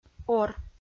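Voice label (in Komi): "ор", Komi, female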